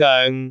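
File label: "goeng6", Cantonese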